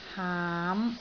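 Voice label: "haam5", Cantonese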